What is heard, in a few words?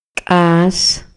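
Maya, male; RELIGIÓN Y CREENCIAS; INALI; MayaYuc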